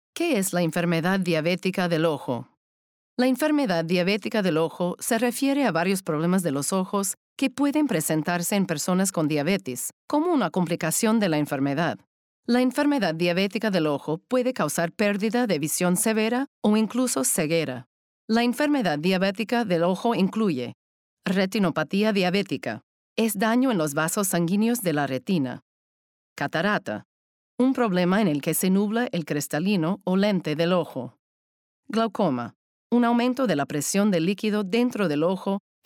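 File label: SPA LA Narr Medical, Spanish Latin American narration medical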